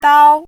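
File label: "dāo", Chinese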